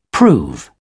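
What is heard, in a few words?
"prove", British English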